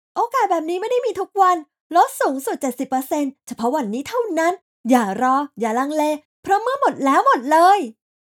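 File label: Ads Hard Sell, Radio Commercials, Female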